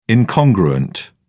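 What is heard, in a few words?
"incongruent", British English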